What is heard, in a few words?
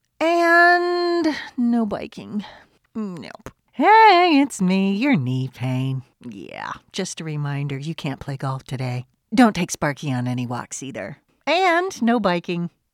Knee pain comic